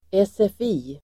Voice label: "SFI", Swedish